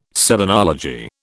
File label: "selenology", British